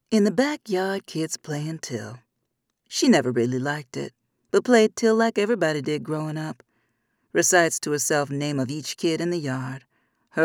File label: 3rd person storyteller